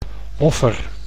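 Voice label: "offer", Dutch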